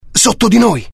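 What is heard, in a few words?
curious, Italian; mohaa; dialogue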